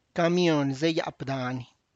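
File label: bus going up the mountain, Tlacochahuaya Zapotec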